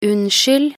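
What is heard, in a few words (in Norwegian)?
"unnskyld", Norwegian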